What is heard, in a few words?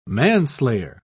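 "manslayer", English, British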